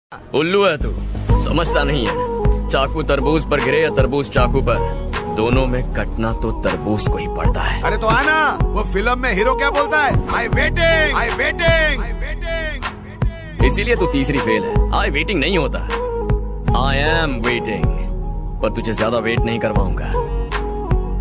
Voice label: Dialogue ringtone

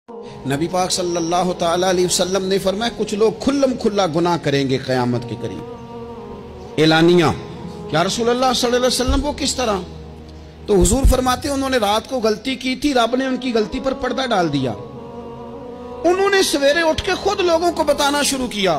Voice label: Islamic hadith ringtone

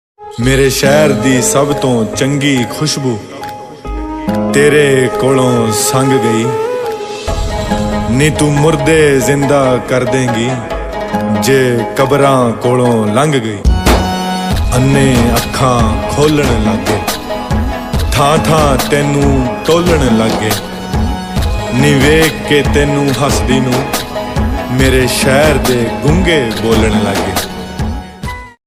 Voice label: shayari dialogue ringtone

